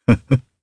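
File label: Vox Happy, Japanese; Kain; kingsraid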